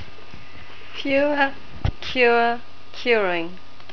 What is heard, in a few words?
"fewer", NZ English